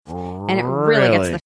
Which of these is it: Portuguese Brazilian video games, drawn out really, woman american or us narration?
drawn out really